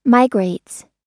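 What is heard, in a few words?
"migrates", American English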